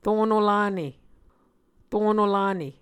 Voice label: "rono lane", muscogee

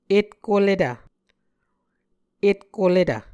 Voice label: "etkoletv", Muscogee

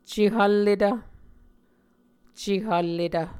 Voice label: "cehvlletv", Muscogee

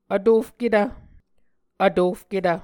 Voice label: "vtofketv", Muscogee

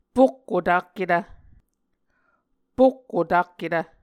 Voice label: "pokko takketv", Muscogee

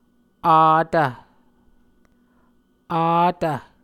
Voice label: "atv", Muscogee

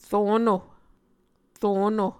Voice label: "rono", muscogee

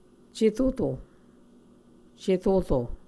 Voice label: "ceroro", Muscogee

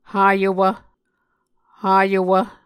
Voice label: "hayowv", Muscogee

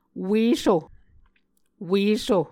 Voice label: "weso", Muscogee

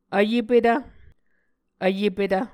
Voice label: "vyepetv", Muscogee

